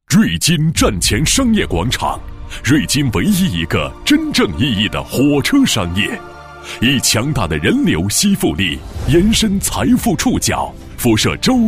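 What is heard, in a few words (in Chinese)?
房地产广告大气; 站前广场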